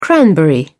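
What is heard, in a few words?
"cranberry", British English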